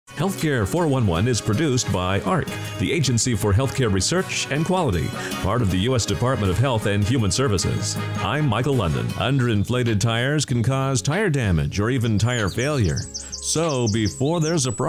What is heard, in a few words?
Host PSA